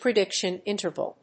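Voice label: "prediction interval", English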